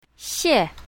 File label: "xie4", Mandarin; greetings